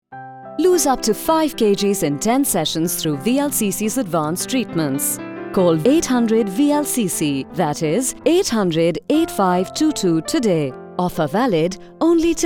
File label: Radio Spot; VLCC Dubai